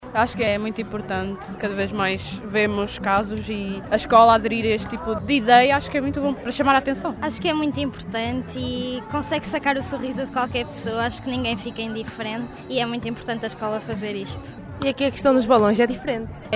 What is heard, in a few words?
vox pop